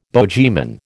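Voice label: "bogeyman", American English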